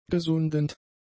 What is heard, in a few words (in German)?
"gesundend", german; adjectives